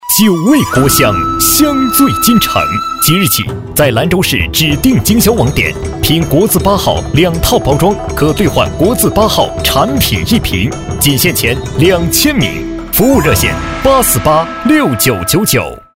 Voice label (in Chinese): 男 浑厚促销, 国语男声配音 磁性; 九味国香